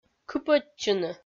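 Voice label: "кыпӧдчыны", Komi-Zyrian, female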